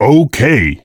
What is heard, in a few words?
"Ok", Male; blastmorph pinball; xbox series xs